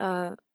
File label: disfluency